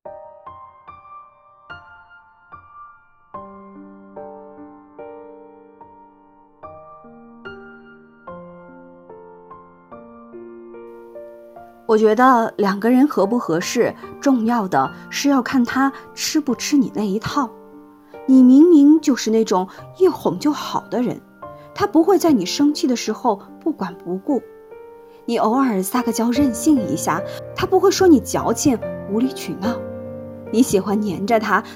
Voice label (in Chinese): 电台女